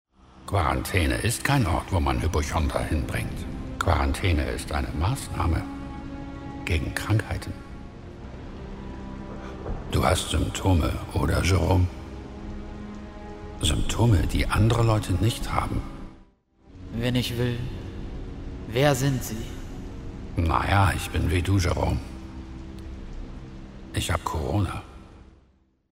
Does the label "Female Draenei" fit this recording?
No